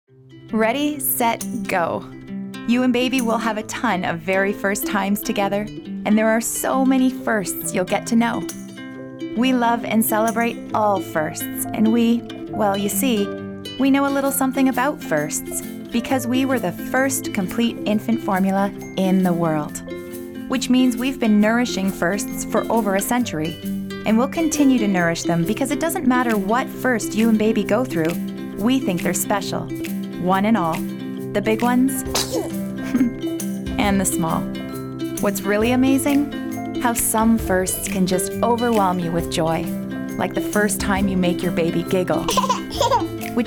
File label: Nestle English